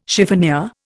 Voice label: "chiffonier", Indian